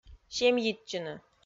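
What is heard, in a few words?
"семйитчыны", Komi, female; kpv audio dict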